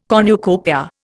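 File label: "cornucopia", Indian English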